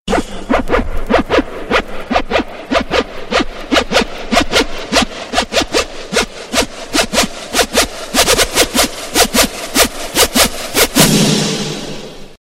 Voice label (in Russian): grubaya smska prikolnyy rington